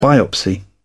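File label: "biopsy", British English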